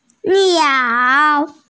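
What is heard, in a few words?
"niao3", Mandarin Chinese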